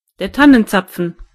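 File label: pine cone, German voices words; gcompris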